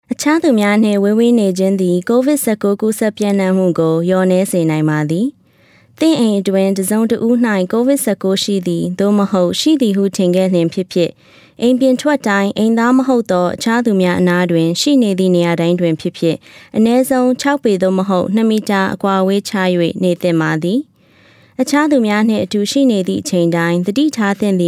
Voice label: PSA Burmese Key Times to Practice Social Distancing; CDC Covid; coronavirus 2019 ncov